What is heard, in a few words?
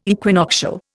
"equinoctial", Indian